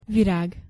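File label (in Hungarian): "virag" Hungarian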